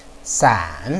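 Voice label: "san3", Chinese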